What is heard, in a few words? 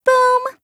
VO en